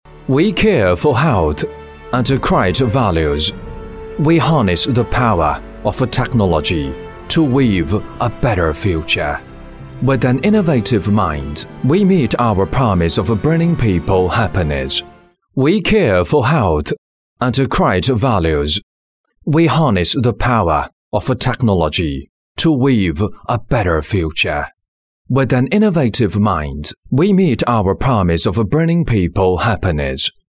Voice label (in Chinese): 英男 专题; 奥美